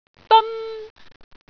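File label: "deon1", Cantonese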